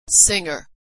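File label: "singer", American English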